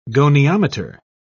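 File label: "goniometer", British English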